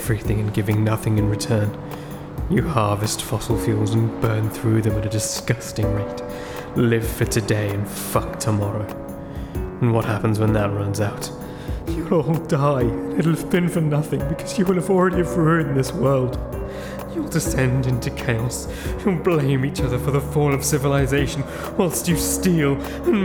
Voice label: Dramatic

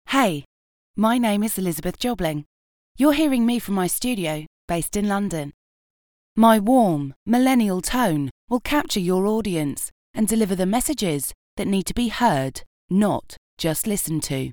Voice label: Studio Sample

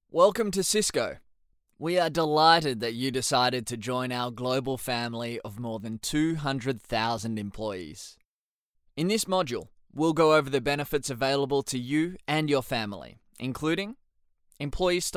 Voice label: E Learning

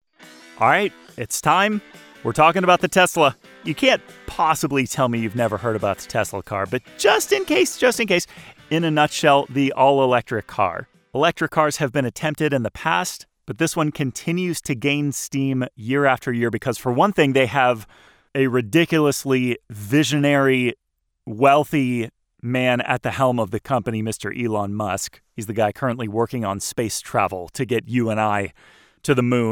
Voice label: Podcast; Tesla

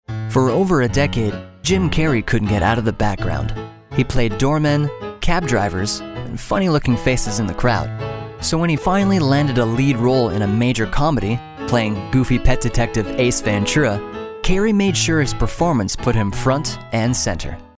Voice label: Narrator Conversational; Jim Carrey Bio

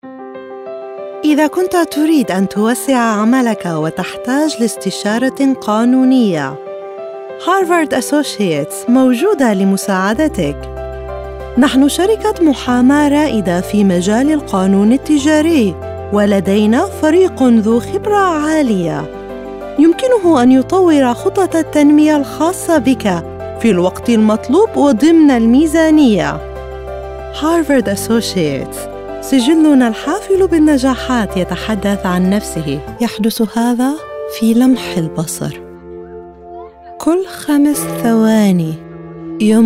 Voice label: Arabic Commercial

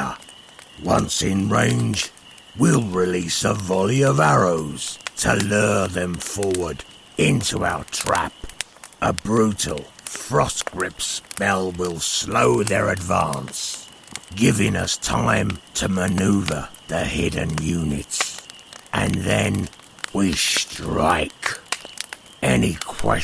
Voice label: Character Animation with de-ess

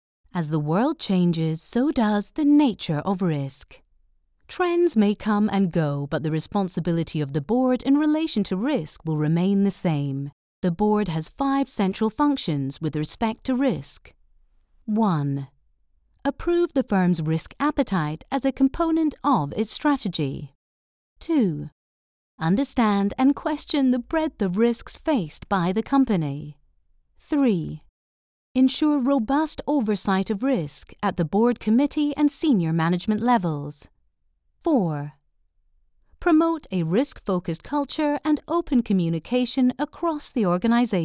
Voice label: online e-learning

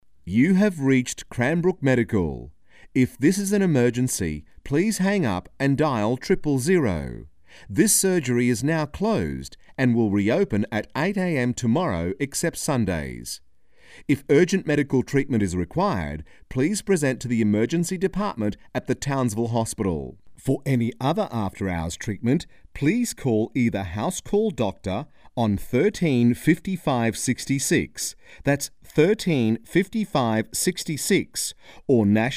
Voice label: Answering Machine

